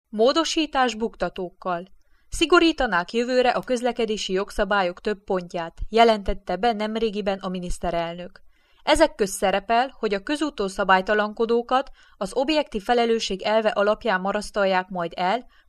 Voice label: Hungarian